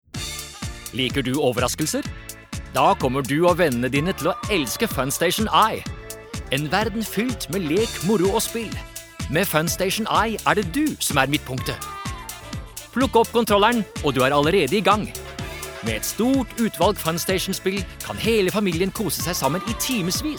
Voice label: no cheerful